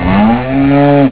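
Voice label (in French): "boeuf", French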